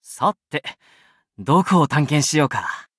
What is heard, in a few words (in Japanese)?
loading, ja; cookie run